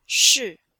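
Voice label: "shi4", Chinese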